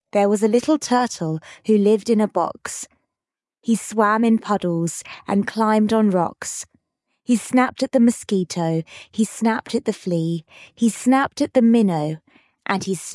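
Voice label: female British; There Was a Little Turtle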